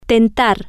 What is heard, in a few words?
"tentar", spanish